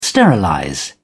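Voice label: "sterilize", British English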